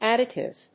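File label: "additive", English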